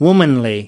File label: "womanly", us